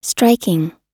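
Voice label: "striking", American English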